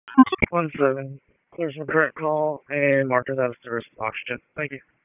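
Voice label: EMS Dispatch; wake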